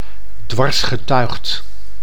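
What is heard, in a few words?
"dwarsgetuigd", Dutch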